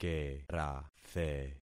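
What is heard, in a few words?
"kerathe", concatenated syllables; Affixing experiment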